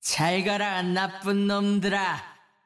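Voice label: Korean; 잘 가라, 나쁜 놈들아!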